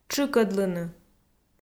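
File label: "тшыкӧдлыны", Komi, female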